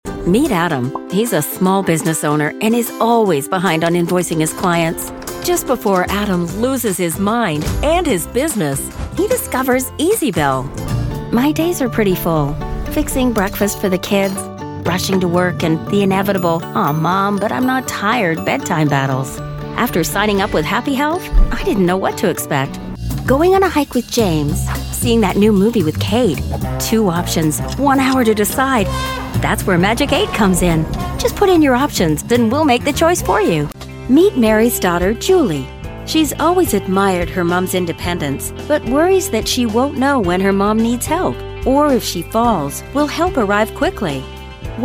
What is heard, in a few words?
Explainer Web Video